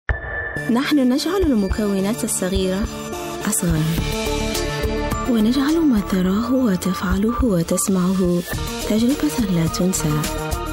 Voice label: Arabic, voiceovers